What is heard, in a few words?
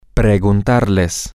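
toAskYouThem, Spanish, male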